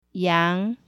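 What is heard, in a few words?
"yang" sheep, mandarin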